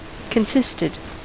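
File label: "consisted", American English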